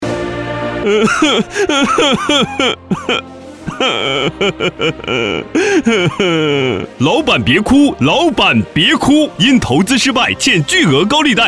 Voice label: 促销

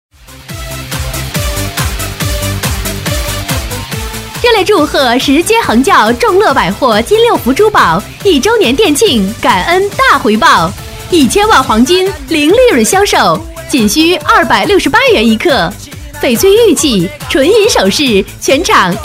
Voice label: 促销; 石碣横窖众乐百货

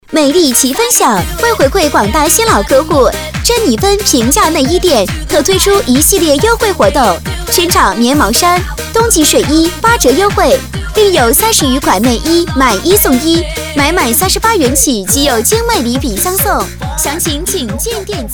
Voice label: 激情促销 内衣促销

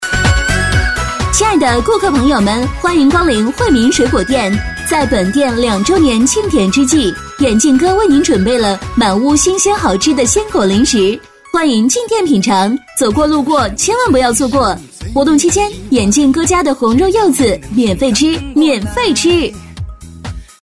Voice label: 促销 鲜果零食店

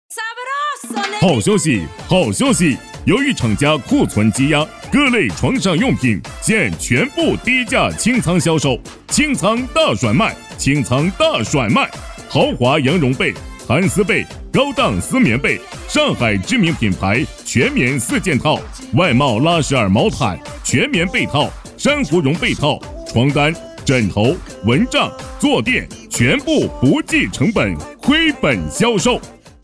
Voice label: 促销 家纺促销

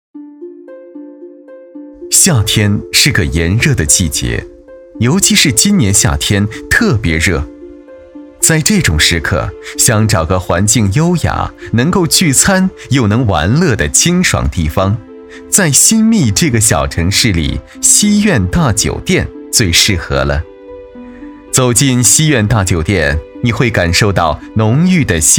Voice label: 广告 大酒店（纪录片感觉）

